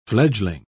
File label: "fledgling", pronounce